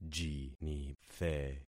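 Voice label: "jinithe", concatenated syllables; Affixing experiment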